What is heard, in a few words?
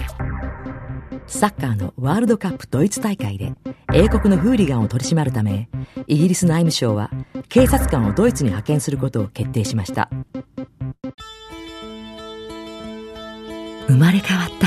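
Japanese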